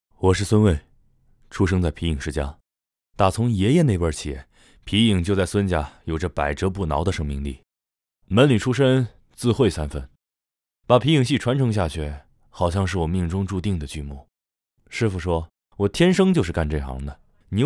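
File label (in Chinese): 人物专题样音素人有感情; 皮影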